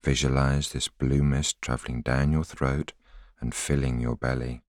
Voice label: voiceover